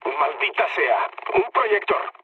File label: searchlite, mood low, speech; War Thunder